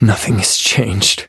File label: defeat, en; cookierun